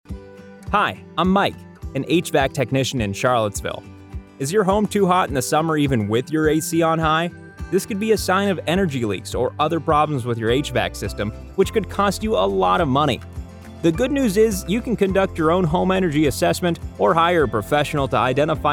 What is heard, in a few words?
Radio; Central VA Charlottesville